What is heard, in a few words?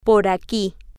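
Spanish, female; over around here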